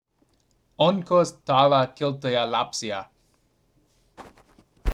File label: Finnish phrase